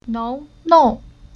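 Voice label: "Nuo", Chinese syllable; Уроки фонетического блока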